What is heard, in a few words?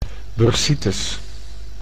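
"bursitis", Dutch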